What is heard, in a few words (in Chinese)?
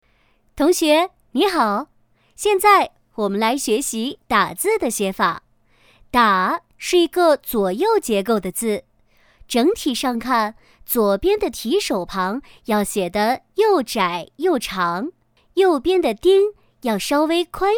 课件汉字拼写